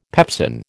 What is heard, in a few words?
"pepsin", American